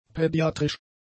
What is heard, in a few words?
"pädiatrisch", German; adjectives